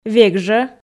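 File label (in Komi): "век жӧ", Komi, female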